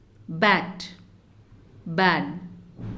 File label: "Bat" "bad"